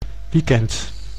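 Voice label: "weekend", Dutch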